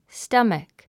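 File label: "stomach", English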